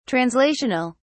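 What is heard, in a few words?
"translational", British English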